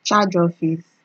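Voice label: "charge office", Nigerian Pidgin, female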